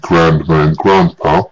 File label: grandma